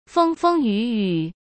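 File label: "风风雨雨", Mandarin Chinese; hsk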